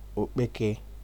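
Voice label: "okpeke", Nigerian Pidgin, male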